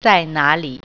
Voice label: "where", Mandarin